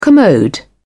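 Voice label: "commode", English, British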